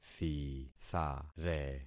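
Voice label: "thithare", concatenated syllables; Affixing experiment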